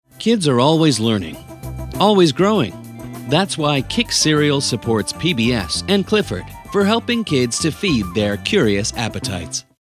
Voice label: friendly